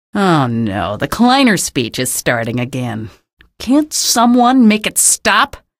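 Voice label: citizen remarks, female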